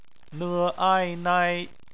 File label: "nai", Chinese; phonetics